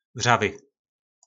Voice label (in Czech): "Vřavy", nářečí Po našimu; Vařící